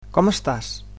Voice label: "how are you", Catalan

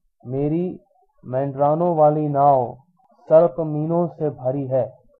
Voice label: "hovercraft", Hindi; मेरी मँडराने वाली नाव सर्पमीनों से भरी हैं; (merī ṃḍarāne vālī nāv sarpamīnoṁ se bharī haiṅ)

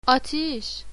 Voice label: fire, persian

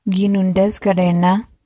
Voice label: are you hungry, ojibwe

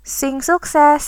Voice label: good luck, sundanese

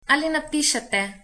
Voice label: write, slovenian

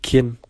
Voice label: "yes", hebrew

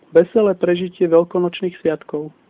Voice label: easter, slovak

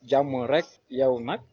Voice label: fine, wolof

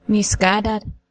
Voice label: this is not good weather, ojibwe

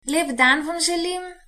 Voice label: have a nice day, slovenian

